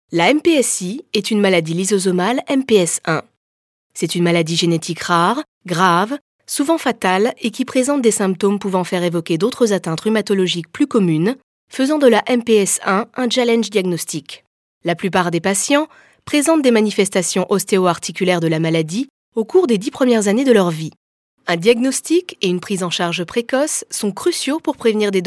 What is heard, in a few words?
fr medical, fransk, kvinne